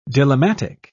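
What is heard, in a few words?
"dilemmatic", British English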